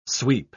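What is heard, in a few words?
"sweep", spoken word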